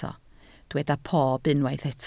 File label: "pob", Welsh